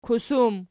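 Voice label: "kusum", nominative, Archi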